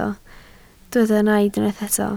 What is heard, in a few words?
"naid", Welsh